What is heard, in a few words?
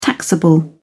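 "taxable", British English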